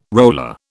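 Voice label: "roller", British English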